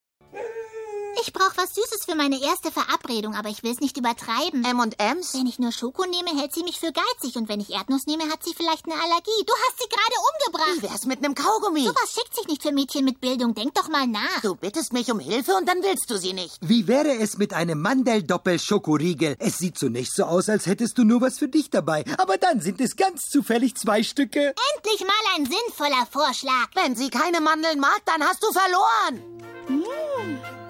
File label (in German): Synchron, frauen; sprecher; Simpsons; Lisa